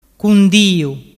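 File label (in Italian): "cundiu", Vernazzese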